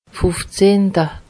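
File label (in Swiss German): "fufzehnta", Alsatian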